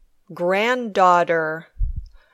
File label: "granddaughter", English